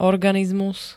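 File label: "organizmus", Slovak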